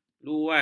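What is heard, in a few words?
"luas", Malay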